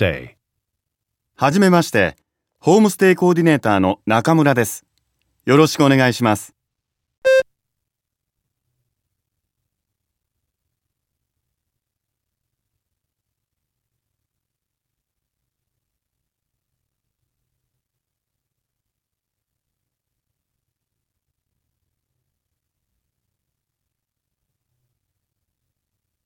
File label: japanese conversation; Audio Prompts